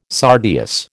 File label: "sardius", American